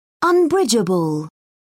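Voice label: "unbridgeable", British English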